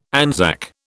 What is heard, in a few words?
"Anzac", British